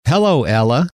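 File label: "hello", male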